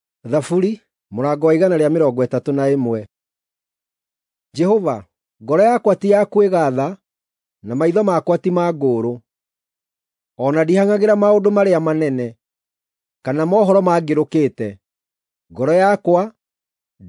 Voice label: psalms, gikuyu drama

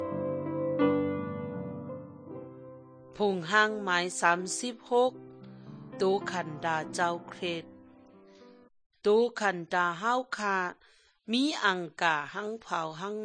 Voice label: good news shan eastern, drama; shan thailand bible society nt drama

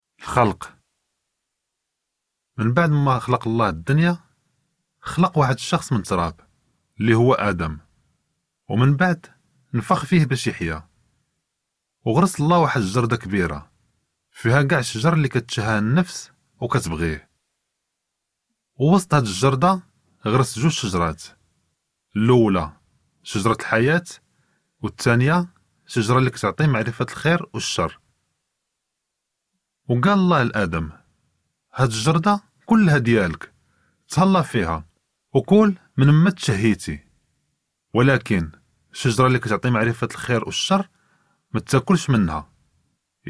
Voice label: creation, moroccan arabic one story

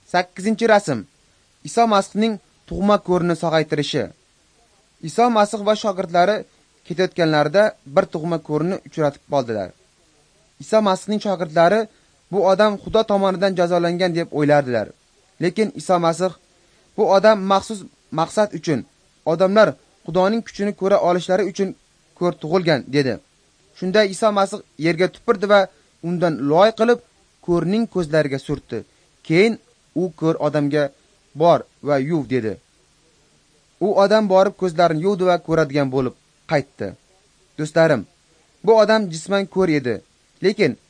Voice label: uzbek northern

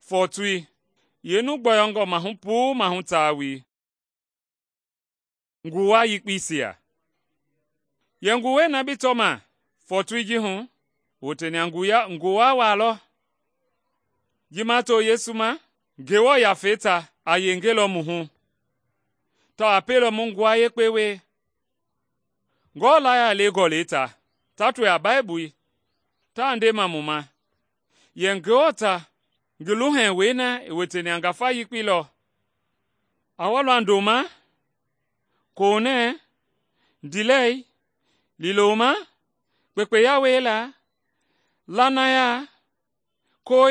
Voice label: mende bsl nt drama; good news mende kpa

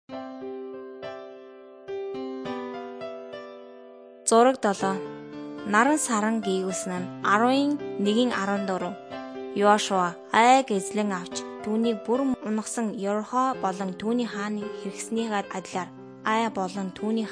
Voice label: mongolian

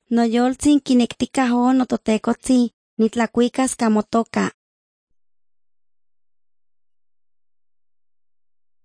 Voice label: words of life nahuatl guerrero tlapa, drama

